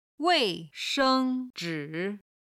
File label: "wei4sheng1zhi3", Chinese